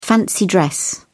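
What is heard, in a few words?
"fancy dress", British English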